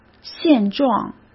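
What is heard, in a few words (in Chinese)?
"现状" Mandarin Chinese; hsk; chinese-flashcards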